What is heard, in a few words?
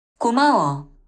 thank you korean; turkey dash